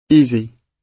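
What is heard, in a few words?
"easy", British English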